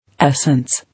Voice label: "Essence", English